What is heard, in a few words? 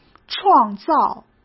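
"创造", Mandarin Chinese; hsk; chinese flashcards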